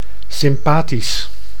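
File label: "sympatisch", Dutch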